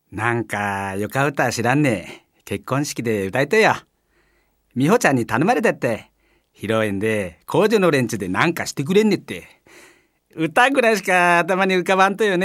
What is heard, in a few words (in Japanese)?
方言, 熊本弁